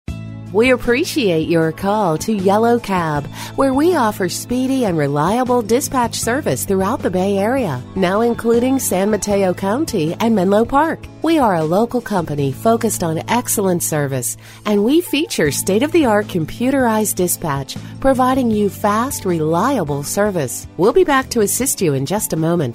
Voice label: On Hold